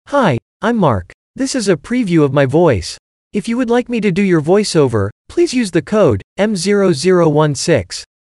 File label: youth